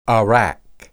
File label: "arak", Hebrew